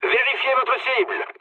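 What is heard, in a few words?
French player friendly fire mood med; War Thunder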